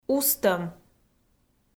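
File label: "устӧм", Komi, female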